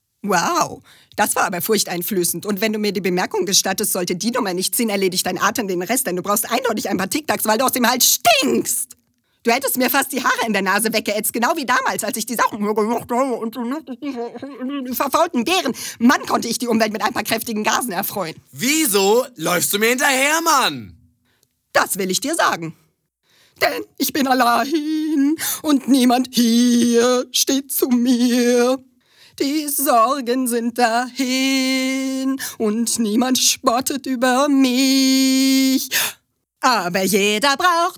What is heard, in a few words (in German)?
trickstimme